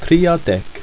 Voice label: "triadek", Ido

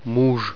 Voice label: "husband", Russian

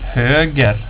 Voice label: "höger", swedish

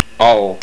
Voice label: Down, estonian

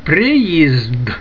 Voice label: "pryyizd", Ukrainian

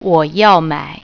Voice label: "would", Mandarin

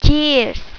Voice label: cheers, indonesian

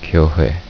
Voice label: church, korean

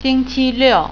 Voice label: "Saturday", Mandarin

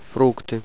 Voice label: "fruit", Russian

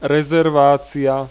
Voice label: "Rezervácia", Slovak

